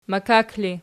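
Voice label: "makak" ergative, Archi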